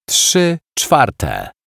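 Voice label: "trzy czwarte", Polish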